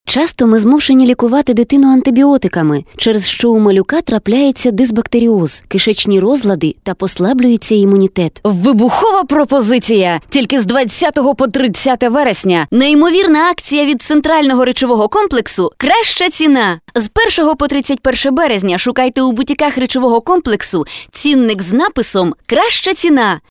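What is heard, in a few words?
Ukrainian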